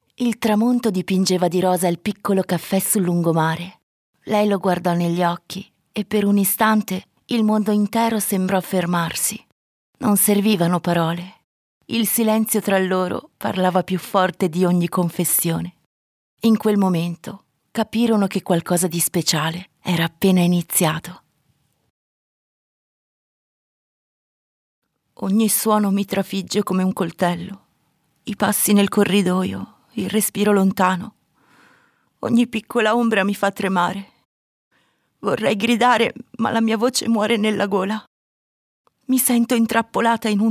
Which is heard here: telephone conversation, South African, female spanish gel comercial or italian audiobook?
italian audiobook